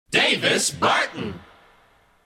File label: Shout